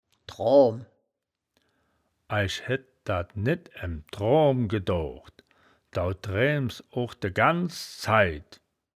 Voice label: "droom", woerterbuch, Wittlich

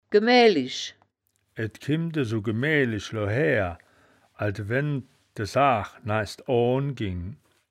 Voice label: "gemaeaelisch", wittlich

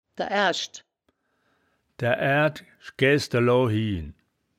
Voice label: "deraeaerschd", wittlicher; deräärschd

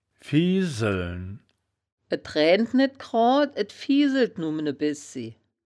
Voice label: "fieseln", woerterbuch, Wittlich

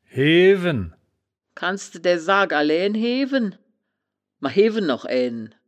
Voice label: "heewen", wittlicher woerterbuch; das wittlicher woerterbuch; woerterbuch vertonung

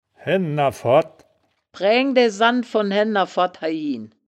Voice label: "haennerer ford", wittlich

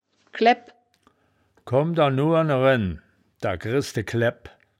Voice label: "klaebp", wittlich; das wittlicher woerterbuch; woerterbuch vertonung

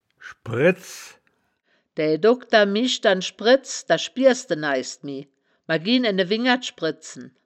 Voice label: "schbreds", wittlicher; das wittlicher woerterbuch; woerterbuch vertonung

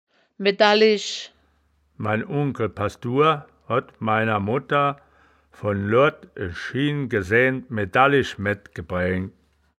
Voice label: "medallisch", wittlich

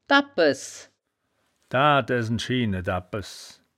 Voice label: "dabpes", wittlicher

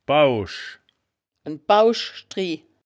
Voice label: "bausch", woerterbuch, Wittlich

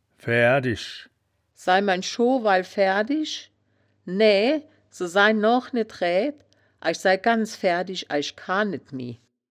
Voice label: "faeaerdisch", woerterbuch, Wittlich; fäärdisch

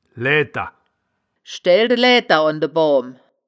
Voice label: "laedter", Wittlicher; das wittlicher woerterbuch; woerterbuch vertonung

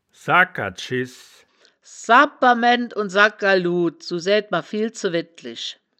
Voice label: "saggkerd-schihs", wittlicher; das wittlicher woerterbuch; woerterbuch vertonung

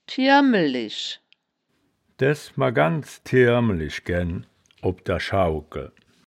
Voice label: "tiermelisch", wittlich

